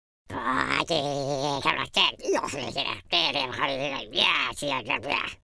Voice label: angry, Scotsman; speech